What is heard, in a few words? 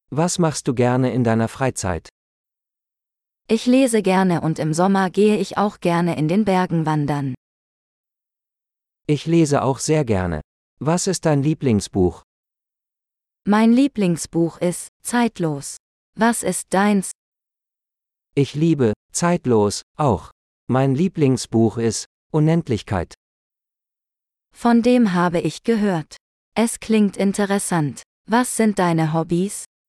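Hobbies - Dialogue, German; de-en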